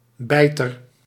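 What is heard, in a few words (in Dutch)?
"bijter", Dutch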